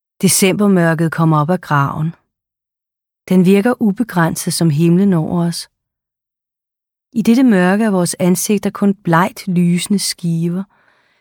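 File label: Danish, Audiobook, female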